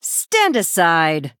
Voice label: Female Human Attack